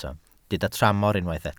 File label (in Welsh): "tramor", Welsh